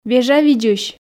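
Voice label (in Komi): "вежавидзысь", Komi, female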